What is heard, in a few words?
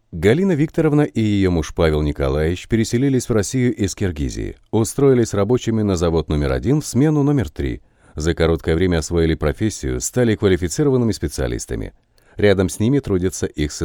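Russian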